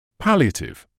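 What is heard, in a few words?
"palliative", British English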